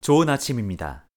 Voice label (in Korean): "좋은아침입니다", Korean; hello in korean